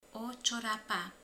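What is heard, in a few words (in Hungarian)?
"zokni", Hungarian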